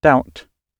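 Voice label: "doubt", British English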